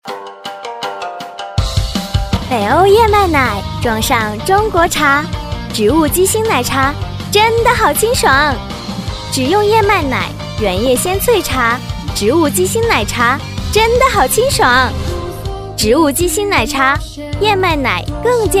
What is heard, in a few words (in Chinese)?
女 广告配音 奶茶 欢快甜美; 基新奶茶; 女007-年轻甜美; 甜美亲切